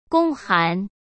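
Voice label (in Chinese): "公函", Mandarin Chinese; hsk; chinese-flashcards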